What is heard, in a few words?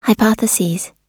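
"hypotheses", US English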